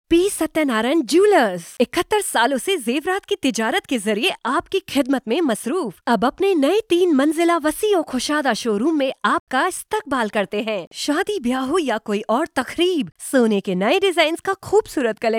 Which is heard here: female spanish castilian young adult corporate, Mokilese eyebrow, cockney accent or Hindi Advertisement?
Hindi Advertisement